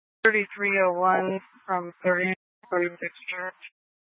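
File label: Incident Tactical, scanner audio recording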